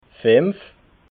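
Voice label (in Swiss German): "finf", Alsatian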